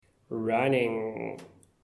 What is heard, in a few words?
"running", English